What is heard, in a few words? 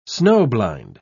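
"snow-blind", British English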